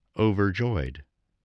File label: "overjoyed", English voice